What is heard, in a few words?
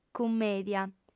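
"cummèdia", female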